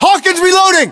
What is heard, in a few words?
US inform reloading, battlechatter, english